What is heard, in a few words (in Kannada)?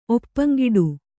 "ಒಪ್ಪಂಗಿಡು", Kannada